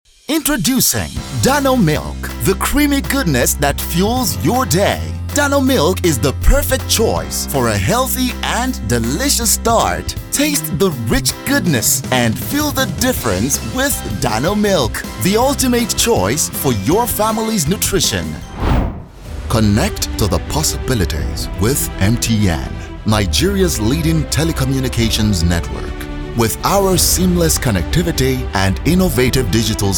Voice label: male nigerian multiple accents commercial compilation